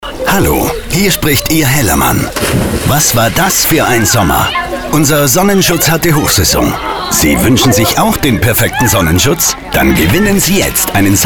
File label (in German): Radiospot Sonnenschutzcheck; Hella